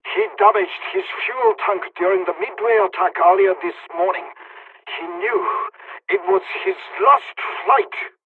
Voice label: dialog, hungarian; War Thunder

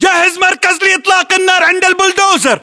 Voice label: order cover bulldozer, battle chatter, english

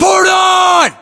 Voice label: US order action boost, battlechatter, english; localized english

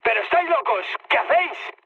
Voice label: player killed ally, mood med, speech; War Thunder

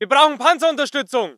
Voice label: voice ger infantry

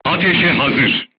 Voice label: voice message charged, crew tanks turkish

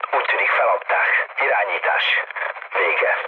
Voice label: hun chat, speech hun; War Thunder

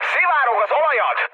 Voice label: damaged oil, speech hun; War Thunder

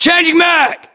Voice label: inform reloading generic, english, us; localized english; battlechatter

